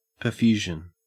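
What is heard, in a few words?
"perfusion", Australian English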